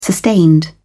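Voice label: "sustained", British English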